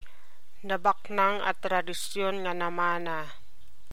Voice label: Ilocano